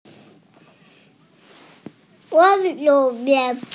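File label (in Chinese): "mian4 fen3" Mandarin Chinese, female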